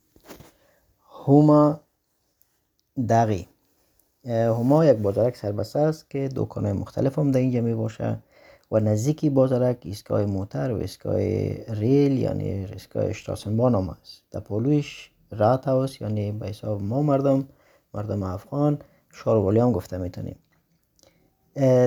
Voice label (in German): Afghanisch